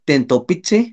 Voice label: "tentopitsi", Náhuatl; lagartija; lizard